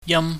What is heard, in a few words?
"yam", pingyam